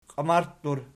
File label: "qiamarttur", Archi